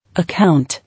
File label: "Account", English